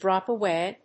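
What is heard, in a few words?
"drop away", English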